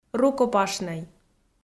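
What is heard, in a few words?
"рукопашнӧй", Komi, female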